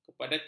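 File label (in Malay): "kepadatan", Malay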